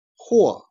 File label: "jian", Chinese